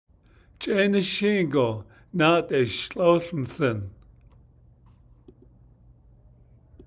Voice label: I want to weave again when summer returns, Navajo